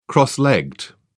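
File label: "cross legged", British English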